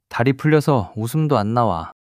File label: fluster